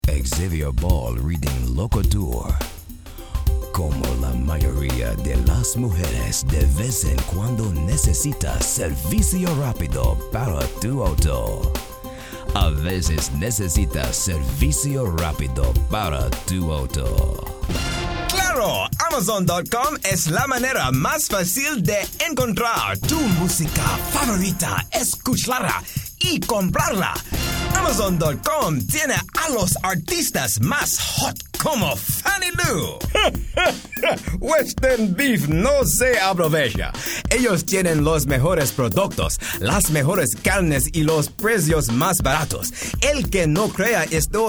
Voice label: Spanish; Toronto Canada

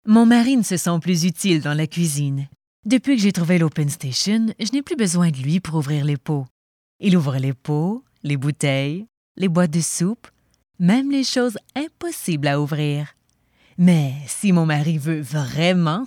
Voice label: IVR; hamilton beach

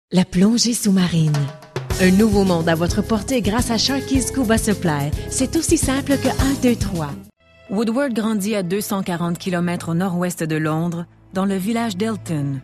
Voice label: french, voice talent; Toronto Canada

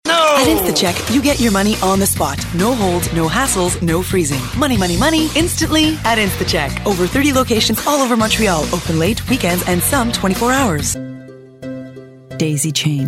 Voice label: english; Toronto Canada

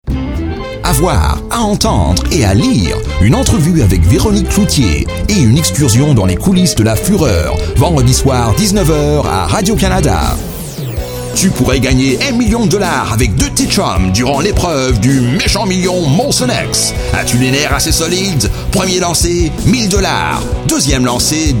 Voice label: french, voice talent

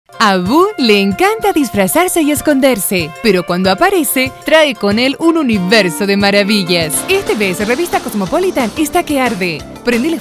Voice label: Spanish, voice talent; Toronto Canada

